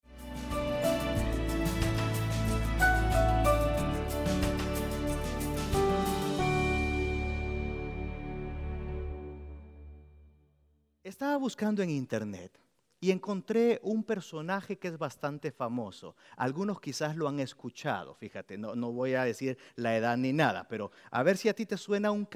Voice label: Spanish Sermon